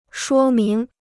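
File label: "说明", Chinese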